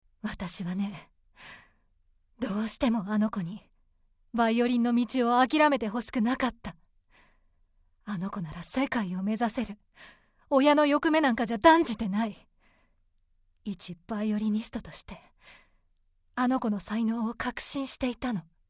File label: serious mother